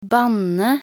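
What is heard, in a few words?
"banne", Norwegian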